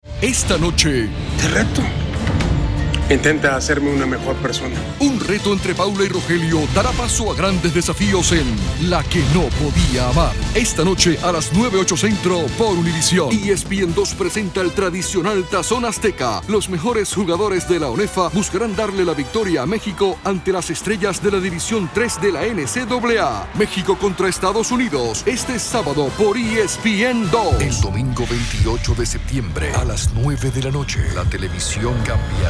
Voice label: TV Promotions

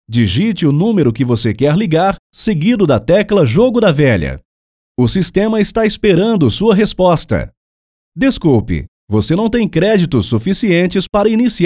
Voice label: IVR Phone System PT-BR

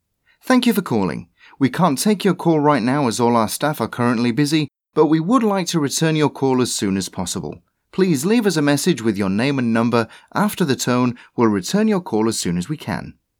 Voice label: On Hold

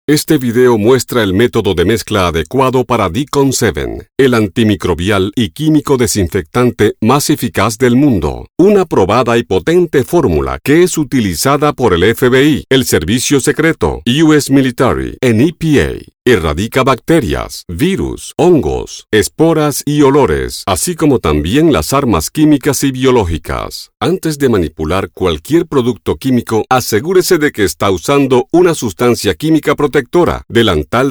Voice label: Corporate

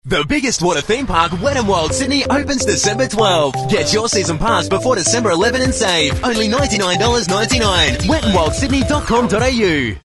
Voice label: radio sample

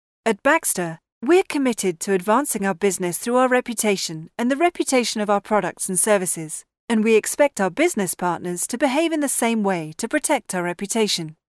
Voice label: Narrator

